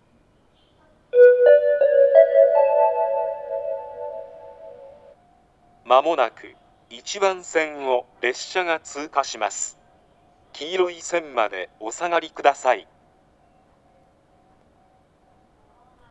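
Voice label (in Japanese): 通過放送, 旭型放送(男声), 列車 通過; 上記2本以外の全ての通過列車(ﾎﾘﾃﾞｰ快速・青梅ﾗｲﾅｰ含む)は簡易的な言い回しでした。; 牛浜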